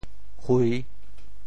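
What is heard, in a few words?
"hui3", Teochew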